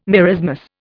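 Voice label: "marasmus", Indian English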